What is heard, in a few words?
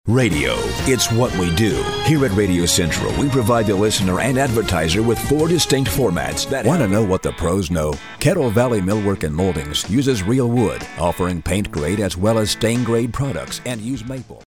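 English US, male, commercial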